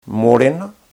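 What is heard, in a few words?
"morena", Māori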